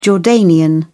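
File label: "jordanian", British English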